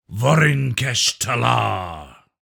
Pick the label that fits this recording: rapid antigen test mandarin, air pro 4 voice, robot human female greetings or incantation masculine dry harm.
incantation masculine dry harm